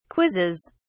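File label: "quizzes", English, uk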